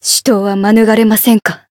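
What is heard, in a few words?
encounter fear, Japanese; felmina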